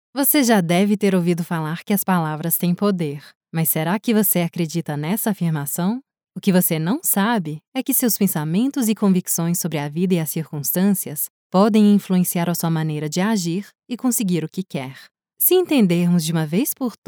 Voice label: Portuguese - Brazillian